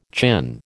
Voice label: "chin", American

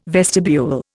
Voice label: "vestibule", Indian

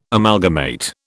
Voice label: "Amalgamate", British

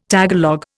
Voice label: "Tagalog", Indian

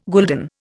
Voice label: "gulden", Indian

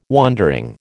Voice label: "wondering", American

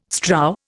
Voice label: "strow", Indian

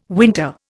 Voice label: "winter", Indian

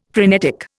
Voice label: "phrenetic", Indian; Dictionary

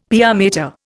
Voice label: "pia mater", Indian; Dictionary

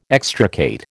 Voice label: "extricate", American

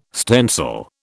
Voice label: "stencil", British

